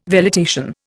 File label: "velitation", Indian